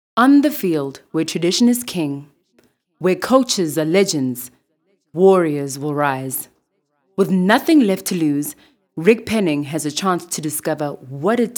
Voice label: Hard Sell